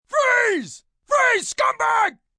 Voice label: Freeze Scumbag, Police, male, command; human voice; Talking

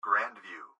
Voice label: Gps Voice, Male; Grand View; Recorded Voice

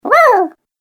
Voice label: Cartoon Game Voice Whoa; Cartoon Voice Sound Effects

